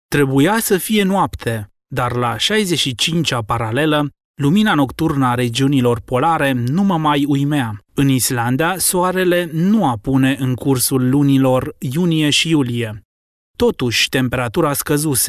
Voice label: male romanian voice over talent character